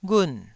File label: "ghun4", Taiwanese syllable